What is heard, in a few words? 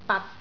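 "bat6", Cantonese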